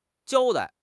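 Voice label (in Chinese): "交代", Chinese; HSK; HSK6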